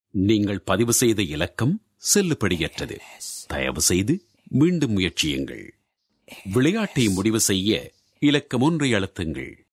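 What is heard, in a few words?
Tamil Sri Lanka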